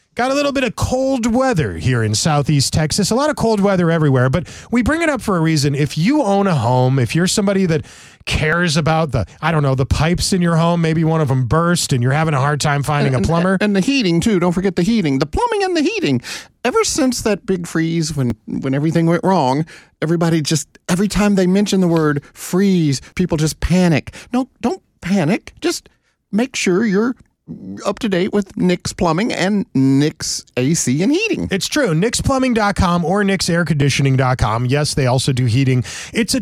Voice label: RADIO AD; nicks plumbing radio ads